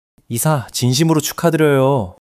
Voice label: excitement